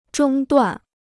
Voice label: "中断", Chinese